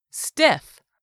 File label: "STIFF", english; 3000 words